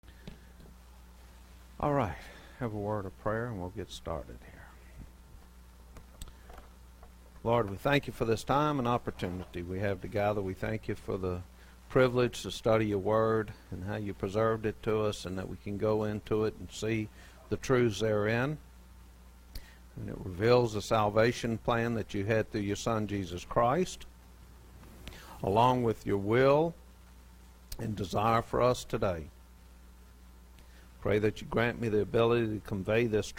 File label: preaching